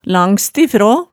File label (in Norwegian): "langstifraa", hemsedalsmaalet tale